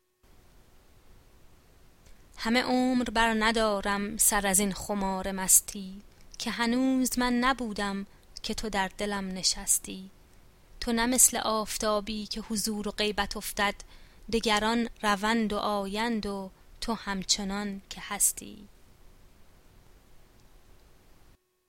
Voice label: pronunciation, Persian